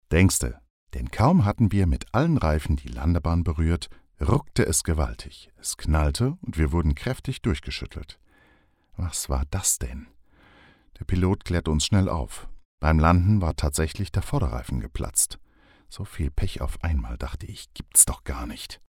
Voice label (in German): Hoerbuch; Biografie